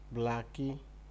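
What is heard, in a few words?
"blackie", Nigerian Pidgin, male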